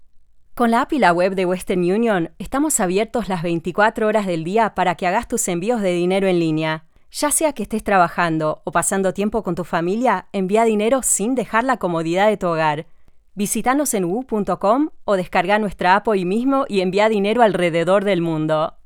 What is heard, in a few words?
roomtone and voice booth